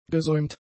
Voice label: "gesäumt", German; adjectives

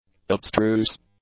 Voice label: "abstruse", english; adjectives

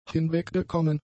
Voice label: "hinweggekommen", German; adjectives

